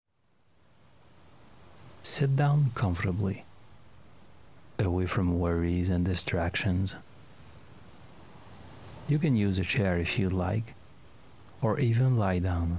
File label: Guided meditation